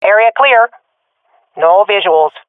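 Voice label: sees area clear, swat female; vo; Counter Strike Model Pack; CS:GO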